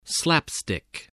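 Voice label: "slap-stick", English word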